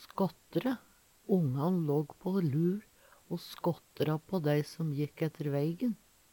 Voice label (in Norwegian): skattre, Numedalsmål; Eksempel på bruk Ongan låg på lur o skåttra på dei som jekk etter veigen.